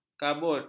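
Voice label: "kabut", Malay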